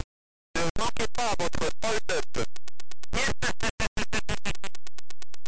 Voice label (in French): Digitalisation Vocale; Hold-Up; Ere Informatique